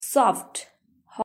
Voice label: "soft", en; grade1; properties of things

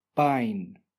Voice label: "pine", English; long vowels

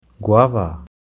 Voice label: Guava, girl

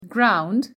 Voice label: "ground", english; sun and light

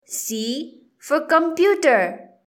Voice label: "c computer", english; grade1; identifying letters i

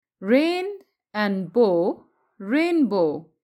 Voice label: rain and bow, english; sun and light

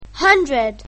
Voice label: "hundred", English; grade1; basic sounds 2

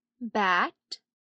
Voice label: "bat", English; grade1; sounds and words

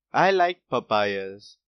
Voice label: papaya like, boy, english; grade1; what do you like

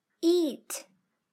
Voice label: "eat", english; grade3; fun with sentence

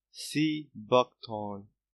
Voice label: Sea Buckthorn, boy, english; grade1; what do you like

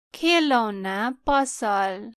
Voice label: toy shop, en

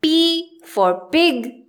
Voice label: p pig, English; grade1; identifying letters sec

